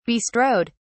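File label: "bestrode", British English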